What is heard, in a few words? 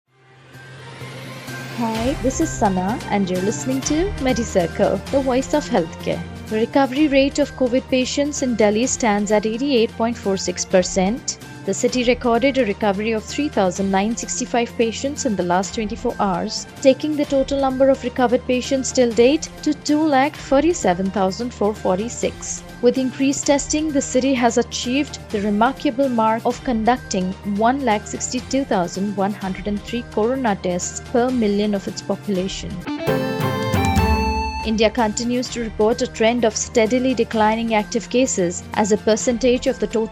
english news